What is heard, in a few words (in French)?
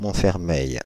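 "Montfermeil" French, Paris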